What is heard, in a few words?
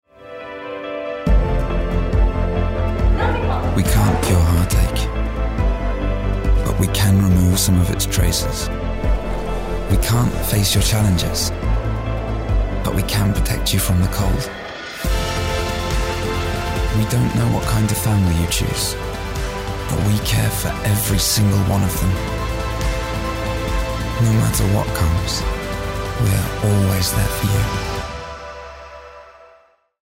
GB UK E Werbung OFF; NIVEA-Always-There